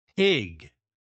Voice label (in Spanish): cerdo inglés; palabras ingles infantil